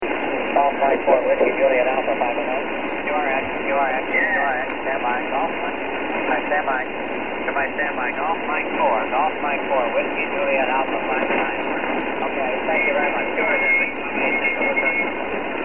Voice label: qso ssb 10m